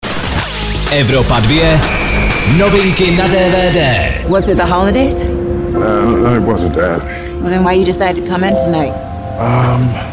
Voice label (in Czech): dvd news; evropa2; collateral beauty druha sance